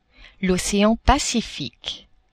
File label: "ocean Pacifique", French; geographie